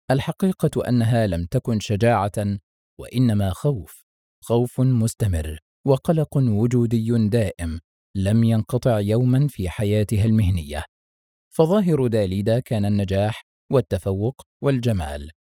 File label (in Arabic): عينة أصوات عربية